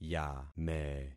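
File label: "yame", concatenated syllables; Affixing experiment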